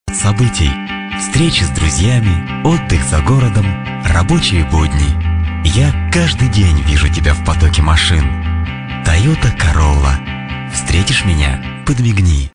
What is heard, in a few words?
Ru male, russian